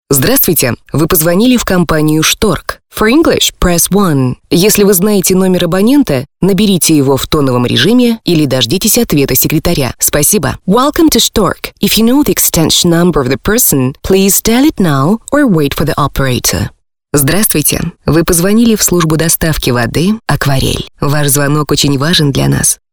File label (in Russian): ivr